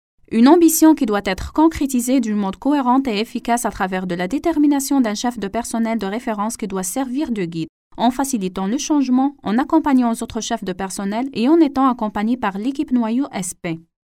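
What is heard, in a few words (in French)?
African French, female, narration; voice demos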